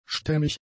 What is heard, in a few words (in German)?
"stämmig", German; adjectives